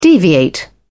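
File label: "deviate", British English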